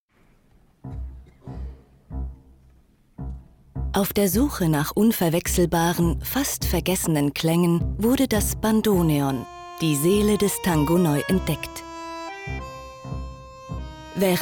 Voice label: reportage overvoice; arte wdr; tango

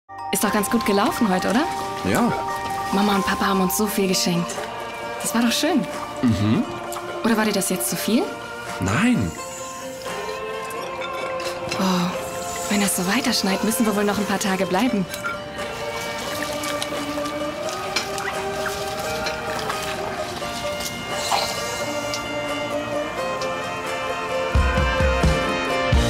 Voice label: tv werbung weihnachtsgeschenke; amazon

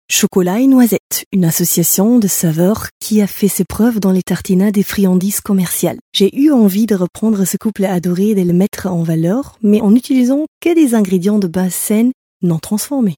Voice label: französische aussprache; LOFT VOICES

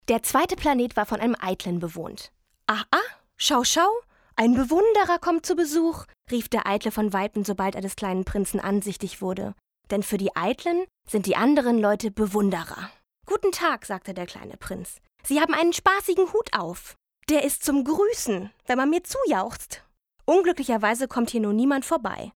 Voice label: erzaehlung kleiner prinz

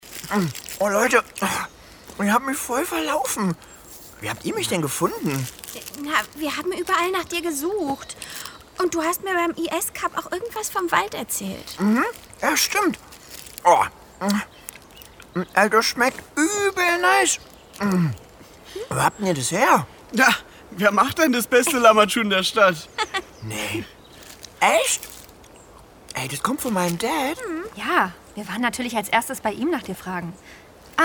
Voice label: Hörspiel Kind Dialog

